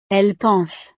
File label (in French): "elles pensent", frances